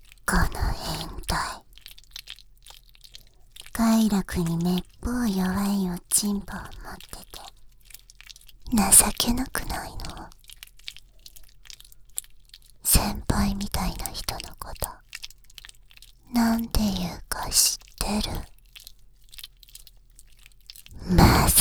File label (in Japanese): 背面密着での耳舐め足コキ